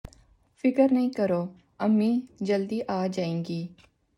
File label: Urdu